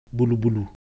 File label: Ewe Motion, ideophones